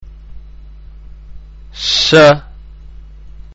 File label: "Sheen", arabic; arabic-malayalam